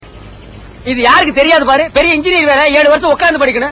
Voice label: dialog

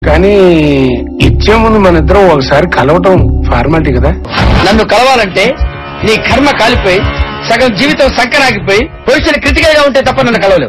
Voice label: telugu dialogue

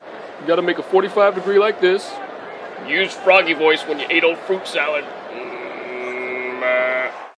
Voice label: froggy voice; nfl

